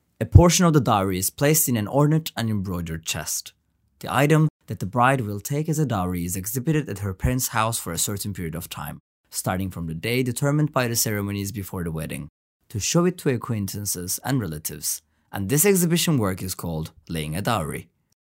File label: english